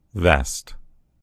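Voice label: "vest", American English; gcompris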